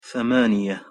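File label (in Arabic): "ثمانية", Arabic pronunciation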